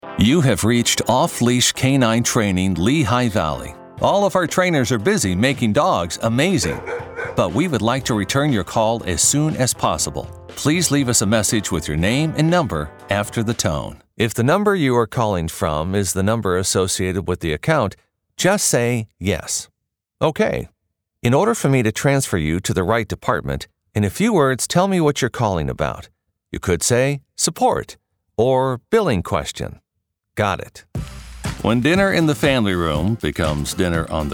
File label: IVR Phone